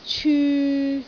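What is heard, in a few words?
"cyu3", Cantonese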